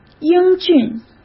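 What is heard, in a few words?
"英俊", Mandarin Chinese; chinese flashcards; hsk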